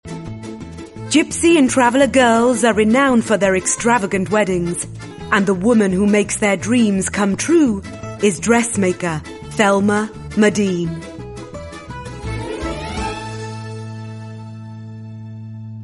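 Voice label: Welsh English, female, narration; accent of wales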